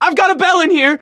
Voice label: radio initial broadcast; left4dead; churchguy; Source engine